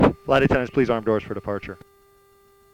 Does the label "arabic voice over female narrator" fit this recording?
No